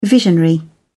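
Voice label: "visionary", English, British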